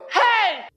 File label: "hey", Voice